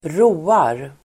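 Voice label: "roar", Swedish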